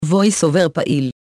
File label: VoiceOver On, he; EFI Audio